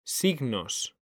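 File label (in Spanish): "signos", Spanish; mekanta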